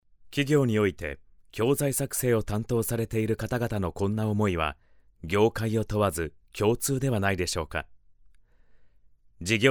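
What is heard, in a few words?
Japanese e-learning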